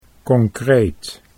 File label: "concreet", Dutch